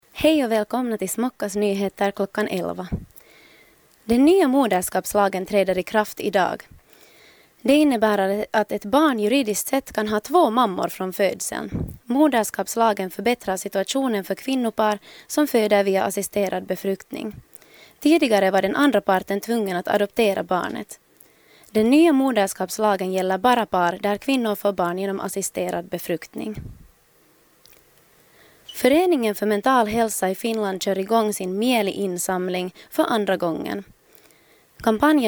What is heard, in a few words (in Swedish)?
Radionyheter